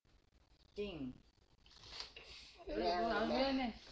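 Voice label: "ding", spoken word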